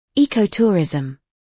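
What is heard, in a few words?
"eco-tourism", British English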